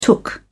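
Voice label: "took", British English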